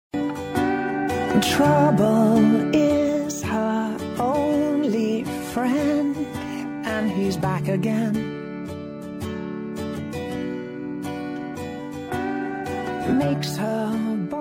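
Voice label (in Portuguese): dia dos pais esposa, marido voz feminina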